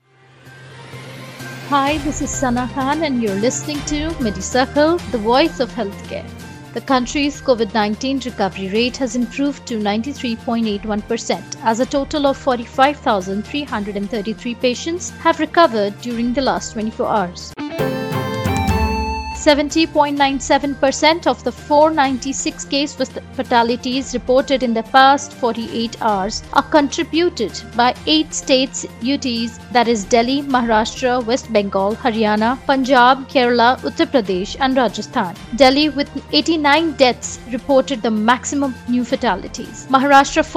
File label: english news